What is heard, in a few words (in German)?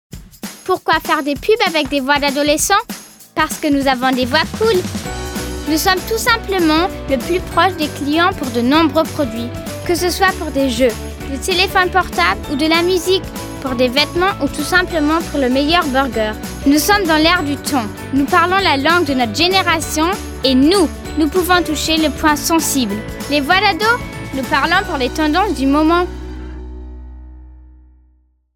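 Teenagerstimmen French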